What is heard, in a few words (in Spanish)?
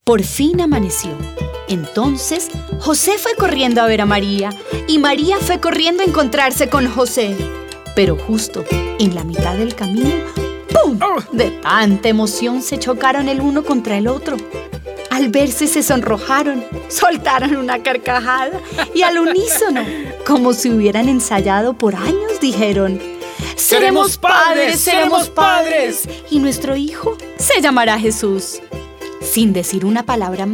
Narración; Los Leñadores